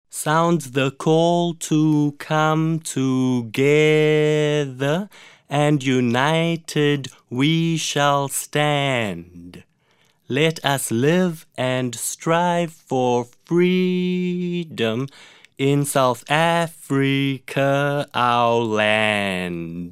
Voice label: english lyrics rhythmic pronunciation